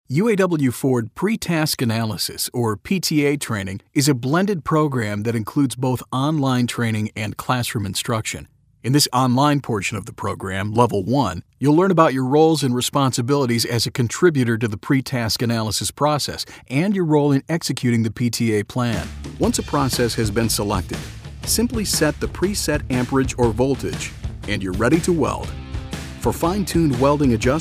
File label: men elearning